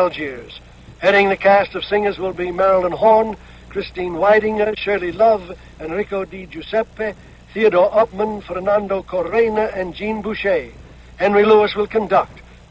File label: promo opera